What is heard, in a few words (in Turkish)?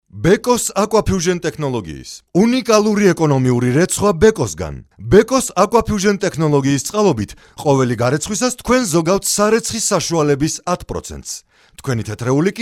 Gurcuce Erkek; seslendirme